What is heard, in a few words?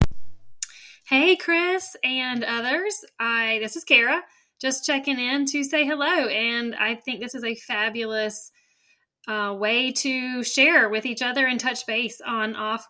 Voice Message; FlexFit Voice Message Board